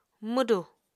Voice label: "mwdù", Apatani